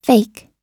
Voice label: "fake", US